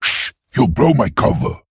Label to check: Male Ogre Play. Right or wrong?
Right